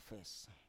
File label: "fes", Biak